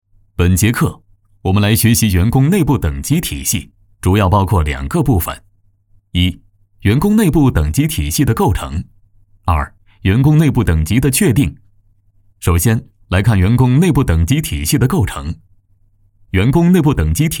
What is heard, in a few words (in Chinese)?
男 课件解说